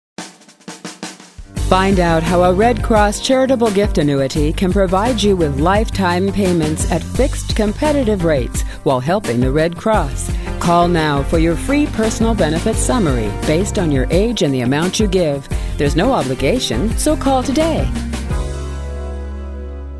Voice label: commercial, voiceover; Voices Custom; red cross